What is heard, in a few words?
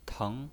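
"teng", pinyin voice, second tone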